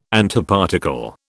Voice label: "Antiparticle", British